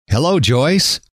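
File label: hello, male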